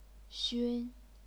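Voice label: "xun", chinese pinyin, first tone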